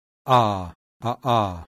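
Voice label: voiceless glottal plosive; IPA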